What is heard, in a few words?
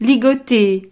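"ligoter", French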